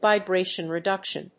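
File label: "VIBRATION REDUCTION", English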